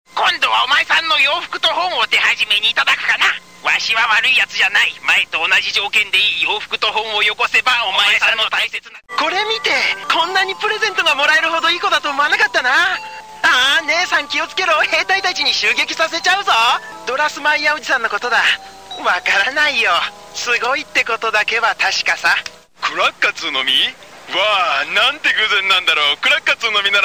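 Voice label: Japanese characters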